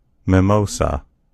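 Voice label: "mimosa", American English; gcompris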